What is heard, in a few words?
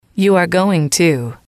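you are going to, female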